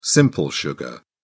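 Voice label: "simple sugar", British English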